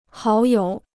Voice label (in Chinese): "好友", Chinese